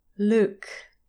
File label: "Luke", English pronunciation